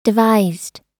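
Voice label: "devised", American English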